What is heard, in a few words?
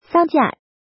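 "Sàng jià", Chinese; Tu vung nghi phep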